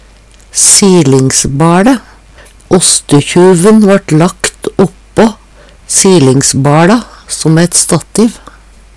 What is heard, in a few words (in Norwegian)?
"silingsba Le", Numedalsmål; Når ein søkjer opp tekst om "silingsbal" vert det kalla mjølkeringje.